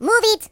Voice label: Hurry, Russian; hedgewars

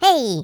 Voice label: Jump, Russian; Russian pl

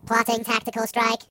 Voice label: Just you wait, Robot; hedgewars

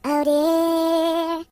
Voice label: "Oh dear", voices

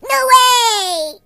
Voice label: "Nooo", Surfer voice; hedgewars

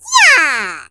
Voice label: Firepunch, Default ru; hedgewars

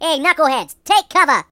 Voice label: Take cover, voices Mobster

